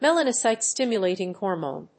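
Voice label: "Melanocyte-stimulating hormone", English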